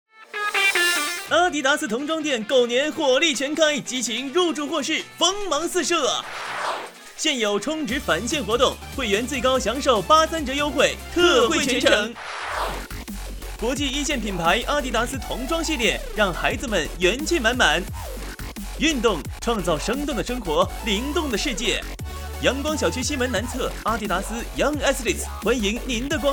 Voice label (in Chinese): 男 童装店; 阿迪达斯童装店